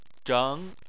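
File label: "zheng" Chinese, second tone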